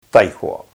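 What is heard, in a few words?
"taihoa", Māori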